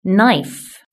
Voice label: "knife", british english

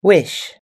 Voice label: "wish", US English

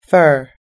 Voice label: "fur", US English

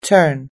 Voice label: "turn", US English

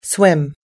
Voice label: "swim", US English

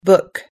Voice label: "book", US English

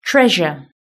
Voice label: "treasure", british english

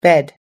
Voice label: "bed", us english

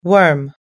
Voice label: "worm", US English

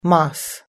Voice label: "moss", US English

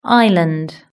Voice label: "island", british english

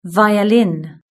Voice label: "violin", british english

